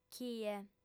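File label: "chie", female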